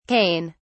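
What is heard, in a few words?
"cain", English, British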